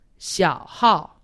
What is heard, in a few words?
"xiaohao", Chinese; Survival Chinese